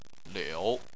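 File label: "liu", Chinese, third tone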